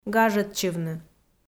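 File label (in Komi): "гажӧдчывны", Komi, female; audio dict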